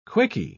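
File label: "quicky", British English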